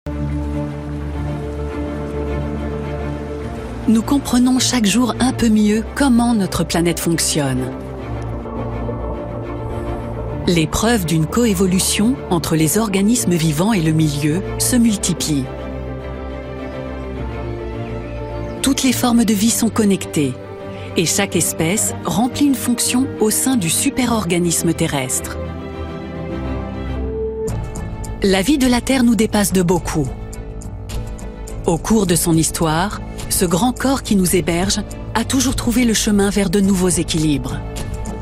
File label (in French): Voix off documentaire; La vie cachée d'une planète